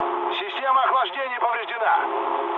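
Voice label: Russian damaged water mood low, speech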